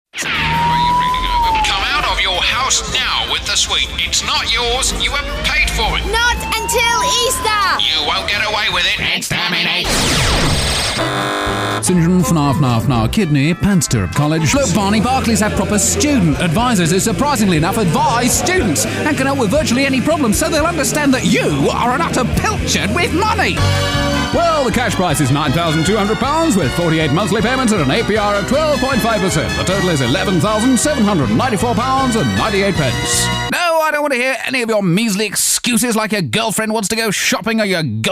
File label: character